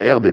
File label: LoFi Words, LoFi Vocals